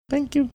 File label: cute thank you